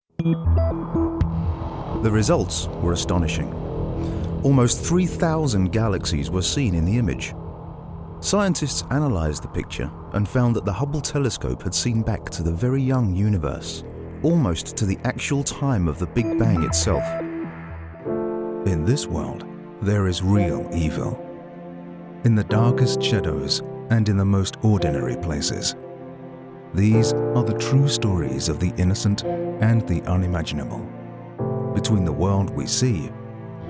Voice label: English British documentaries